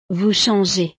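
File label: "vous changez", French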